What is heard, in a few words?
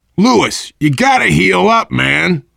player suggest health louis, voice, biker; Left 4 Dead 2; survivor